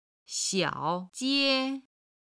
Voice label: "xiao3 jie5", chinese; 小姐

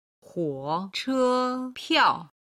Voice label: "hou che piao", Chinese

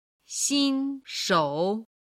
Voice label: "xinshou", Chinese

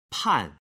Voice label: "pan 4", Chinese

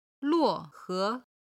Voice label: "luo4 he2", Chinese

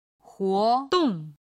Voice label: "huodong", chinese

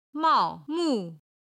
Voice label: "mao mu", chinese

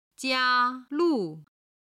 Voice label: "jia1lu4", chinese

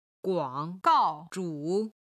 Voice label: "guang3 gao4 zhu3", Chinese

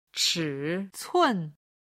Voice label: "chi cun", Chinese

